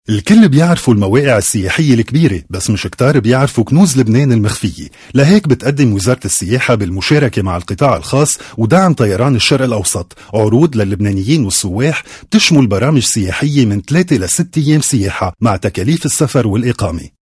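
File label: narration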